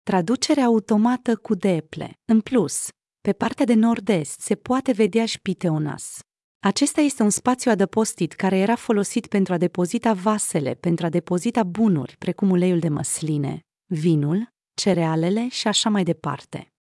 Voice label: romanian, Tur ghidat audio; Πιθεώνας; Pitheonas